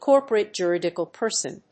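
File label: "corporate juridical person", English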